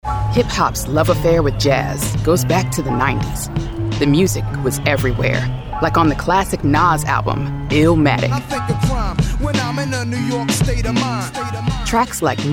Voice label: Urban Informative Hip Hop Music Channel TV Narration